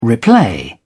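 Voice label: "replay", British English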